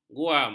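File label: "guam", Malay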